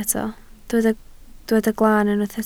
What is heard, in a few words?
"glaan", Welsh